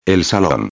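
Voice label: "el salon", Spanish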